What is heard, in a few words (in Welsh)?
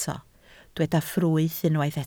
"ffrwyth", Welsh